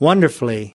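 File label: "wonderfully", US English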